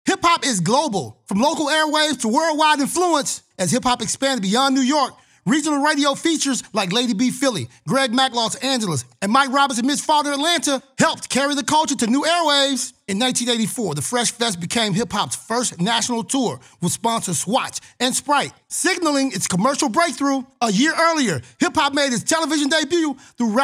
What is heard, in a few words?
Narration; BT50; Greg Street; hip hop is global